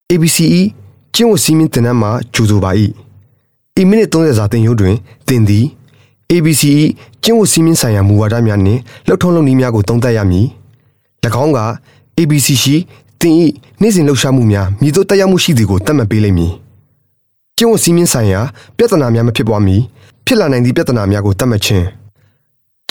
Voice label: Burmese m E-Learning

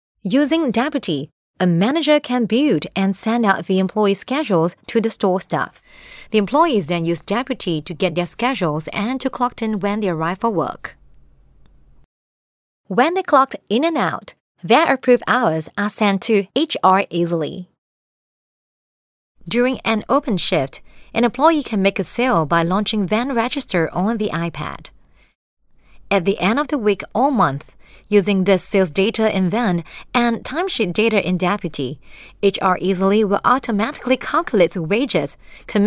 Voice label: Asian English; Newstead-Deputy-POS-Software

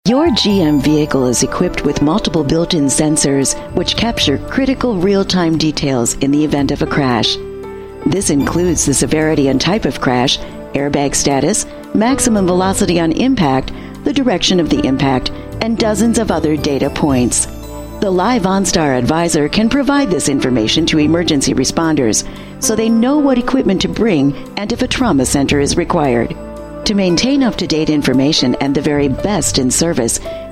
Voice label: US English Narration

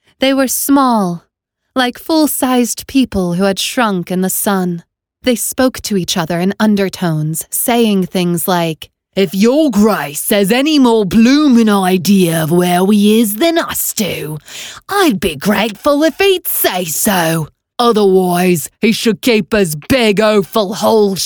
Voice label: US English; Graveyard Book

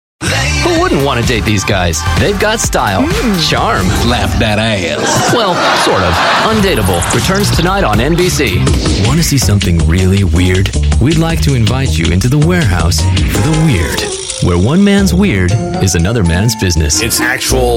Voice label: US English Promos

